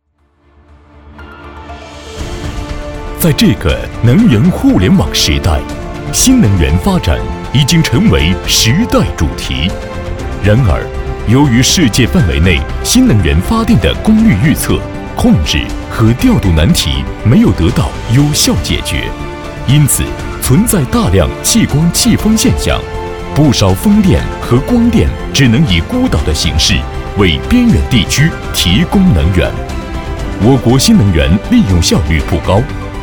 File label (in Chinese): 男国 宣传片 企业 浑厚; 新能源